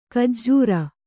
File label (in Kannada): "ಖಜ್ಜೂರಿ", Kannada